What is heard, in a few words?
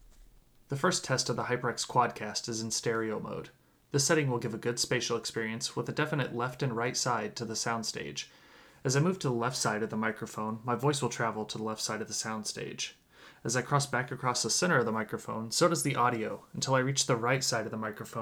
Quadcast Stereo